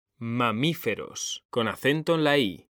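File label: "mamíferos", Spanish, male; mekanta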